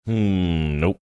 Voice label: drawn out nope